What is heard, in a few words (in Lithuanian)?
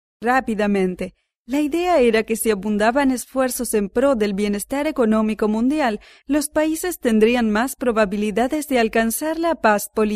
(MOT) Ispanu balsas; moteris